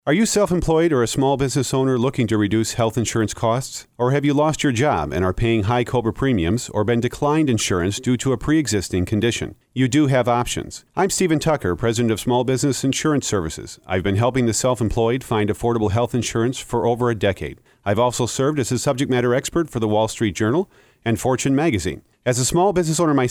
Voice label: commercial